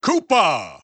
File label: Japanese Announcer; Bowser; SSBB